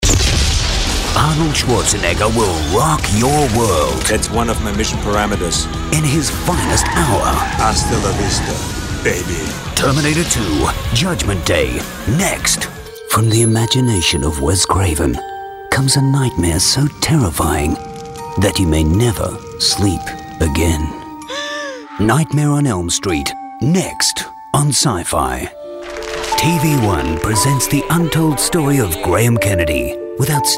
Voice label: movie promos